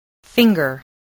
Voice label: "finger", spelling